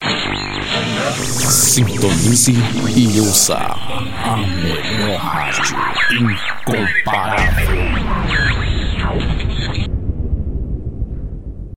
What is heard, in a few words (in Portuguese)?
vinheta, voz e producao